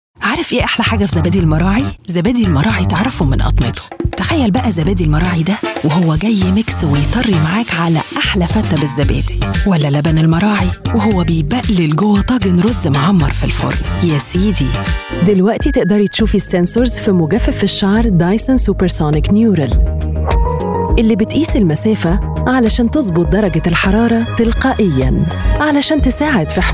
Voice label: Egyptian Arabic Commercials VO